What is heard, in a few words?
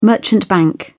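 "merchant bank", British English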